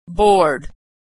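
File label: "bored", American English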